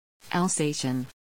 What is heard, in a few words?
"alsatian", American English